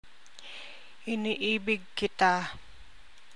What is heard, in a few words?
Tagalog Translation I love you. Iniibig kita